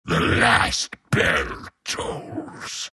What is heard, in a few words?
troll death; troll warlord